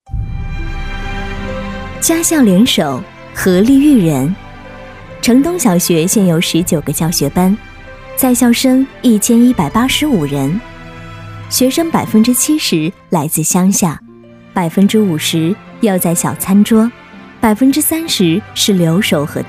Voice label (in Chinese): B女 专题 专题小学概况